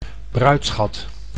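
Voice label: "bruidschat", Dutch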